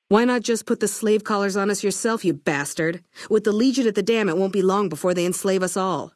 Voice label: female adult greeting; Fnv